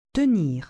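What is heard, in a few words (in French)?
"tenir" French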